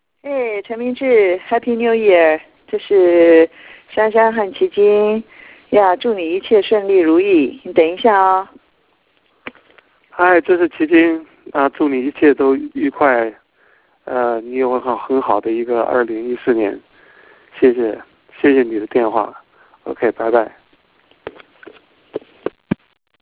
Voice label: voice msg